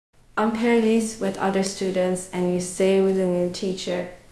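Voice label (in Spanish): Voice Acting es F, Spanish, female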